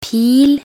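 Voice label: "pil", Norwegian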